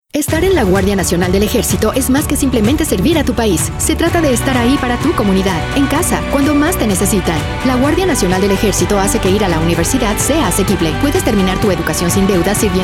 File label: SPANISH; Guard Lifestyle